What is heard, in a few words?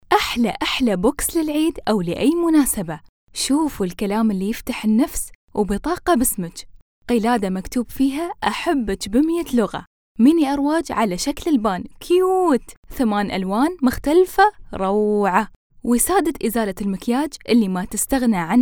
UAE, emirati voiceover